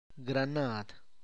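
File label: tlacochahuaya, Zapotec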